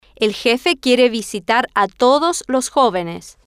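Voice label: el Jefe Quiere Visitar A Todos Los Jovenes, Spanish, female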